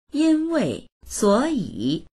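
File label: "yin1wei4 suo3yi3", Mandarin Chinese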